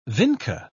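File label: "vinca", English, British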